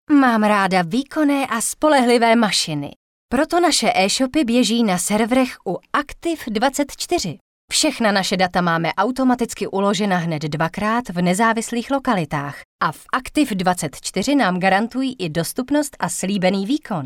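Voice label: female Czech commercial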